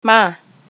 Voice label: stop zulu